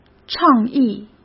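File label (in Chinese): "倡议", Mandarin Chinese; hsk; chinese-flashcards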